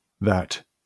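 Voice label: "that", British English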